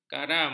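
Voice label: "karam", Malay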